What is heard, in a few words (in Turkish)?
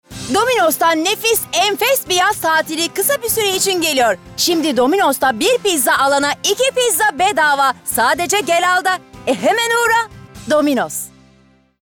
Promosyon